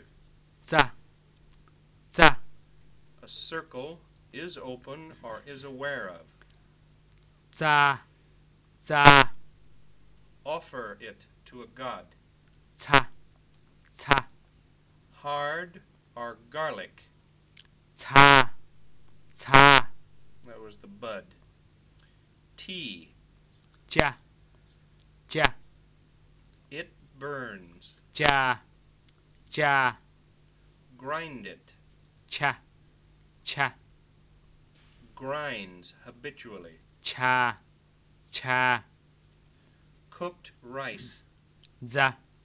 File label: Newati Consonants and Vowels